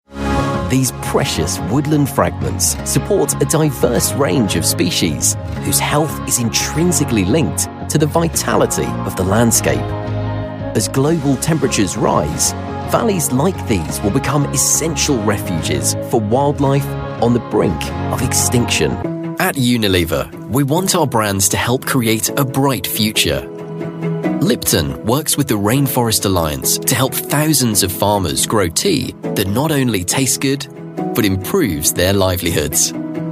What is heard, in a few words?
westcountry voice corporate